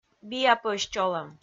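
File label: "биа пӧсь чолӧм", Komi, female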